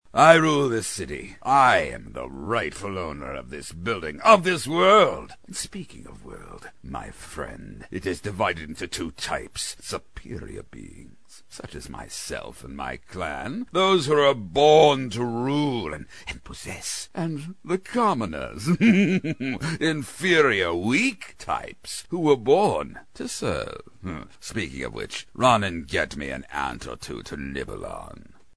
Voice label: Dark Lord, cartoon; animation